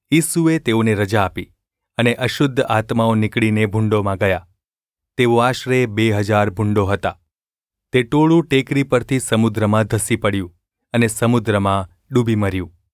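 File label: gu ulb mrk, Gujarati; mrk 5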